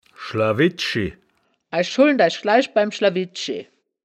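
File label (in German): "schlawid schi", wittlicher woerterbuch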